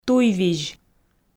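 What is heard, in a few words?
"туйвизь", Komi, female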